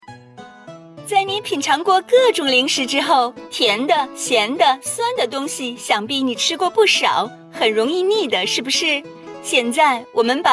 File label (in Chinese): 阳光 活泼型 广告